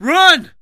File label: Escape, Human Male; zps; HumanMale-01